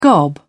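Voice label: "gob", British English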